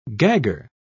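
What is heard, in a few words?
"gagger", British English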